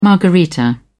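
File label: "margarita", English, British English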